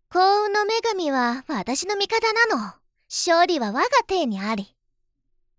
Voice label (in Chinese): 通用女角